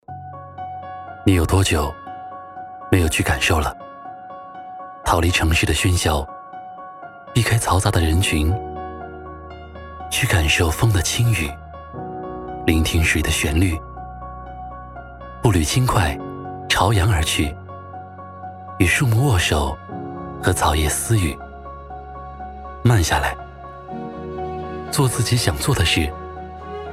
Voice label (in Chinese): 广告走心自然轻快